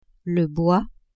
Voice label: "bois", French; campagne

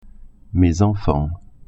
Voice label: "enfants", French

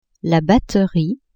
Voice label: "batterie", French; la batterie the drums; instruments

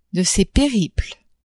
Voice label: "de ses periples", French; adverbs

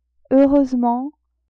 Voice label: "heureusement", French; adverbs

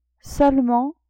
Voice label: "seulement", French; adverbs

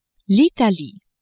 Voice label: "Italie", French

